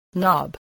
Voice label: "knob", English